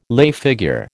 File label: "lay figure", American